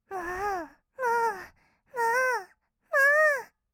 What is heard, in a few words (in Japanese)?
むーむー, kawaii voice; ASMR; 合いの手; 1200 kawaii voice!!